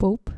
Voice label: "pope" dutch